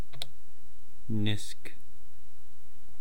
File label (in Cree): "nisk", eastcree